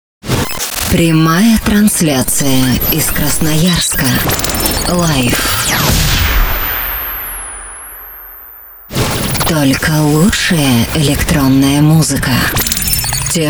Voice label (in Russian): Голос с обработкой и эффектами